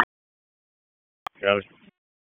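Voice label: FIRE Operations, p25; FIRE OPS 1; wake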